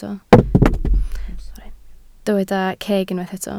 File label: "ceg", Welsh